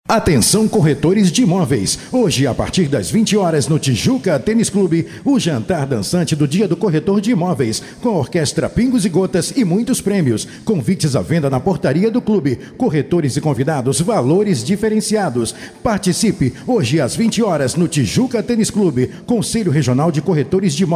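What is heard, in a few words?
locutor brasil brazilian voiceover; locutor brasileño.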